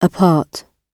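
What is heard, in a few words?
"apart" British English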